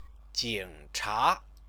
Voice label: "jingcha", Chinese